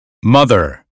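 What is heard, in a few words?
"mother", American phonetics; 英语音标发音（剑桥版）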